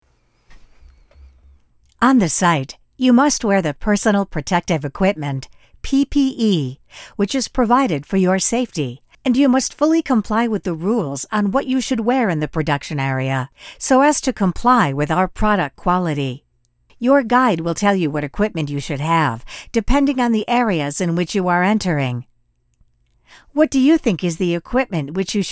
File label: Elearning